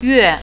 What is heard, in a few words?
"month", Mandarin